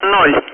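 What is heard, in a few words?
Speech, Russian, air traffic control; Digits; DCS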